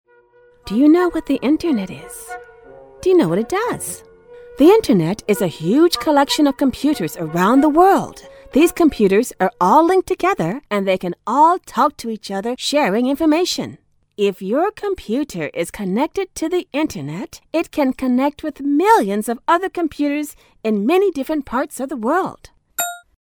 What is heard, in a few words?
eLearning For Children